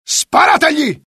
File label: alert, dialogue IT; mohaa; attack